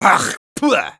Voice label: Sniper taunts, es; (Carraspear y escupe)

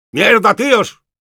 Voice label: Engineer negative vocalization, es

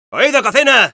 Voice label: Scout yes, es; ¡Oído, cocina!

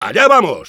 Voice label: Engineer battlecry, es; ¡Allá vamos!

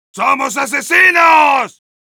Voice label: Heavy cheers

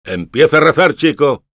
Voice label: Engineer taunts, es; "¡Empieza a rezar, chico!"

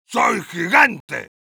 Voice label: Heavy cheers es; "¡Soy gigante!"

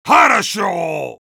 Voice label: Heavy good job, es

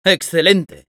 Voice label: Spy positive vocalization, es; Excelente.

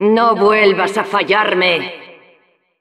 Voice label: Announcer you must not fail again

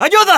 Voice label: Scout help me, es; ¡Ayuda!

